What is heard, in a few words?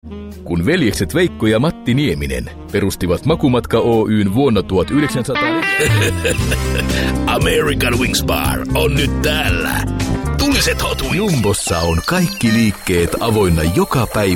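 Finnish General; GoLocalise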